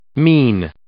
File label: "mien", English